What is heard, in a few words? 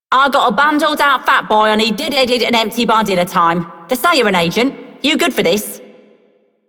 Female Dispatcher, Female One, en; Dialog; Warframe; Solaris Venus